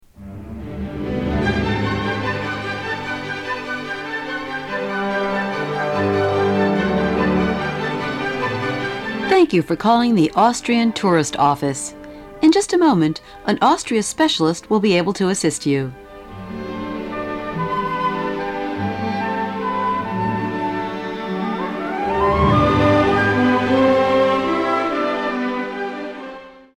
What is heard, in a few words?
Austrian Tourist Greeting